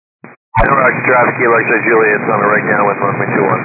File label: 122 725MHz, scanner radio